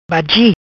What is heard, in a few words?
"Bagi", Macanese